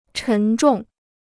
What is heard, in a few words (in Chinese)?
"沉重", Chinese